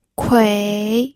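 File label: "kui", third tone, pinyin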